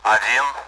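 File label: Speech, Russian, AWACS; Digits; DCS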